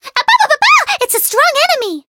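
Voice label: Voice encounter fear; myungwha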